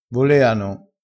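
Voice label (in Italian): "voleano", dialettale, crespinese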